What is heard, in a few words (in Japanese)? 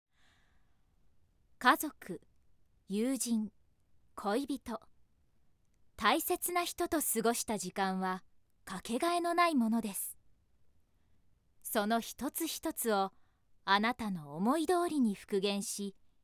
ナレーション